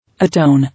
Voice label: "Atone", English